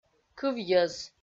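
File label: "кывйӧз" Komi, female; kpv audio dict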